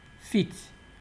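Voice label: "fit", Nigerian Pidgin, male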